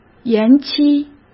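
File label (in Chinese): "延期" Mandarin Chinese; chinese-flashcards; hsk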